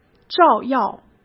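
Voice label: "照耀", Mandarin Chinese; chinese-flashcards; hsk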